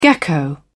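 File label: "gecko", British English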